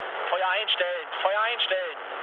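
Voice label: German player friendly fire mood low, speech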